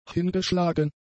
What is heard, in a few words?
"hingeschlagen", German; adjectives